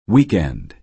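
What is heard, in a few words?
"weekend", American English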